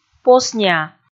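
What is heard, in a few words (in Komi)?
"посниа" Komi, female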